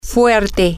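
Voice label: strong, Spanish, female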